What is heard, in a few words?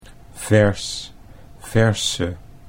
"vers verse", Dutch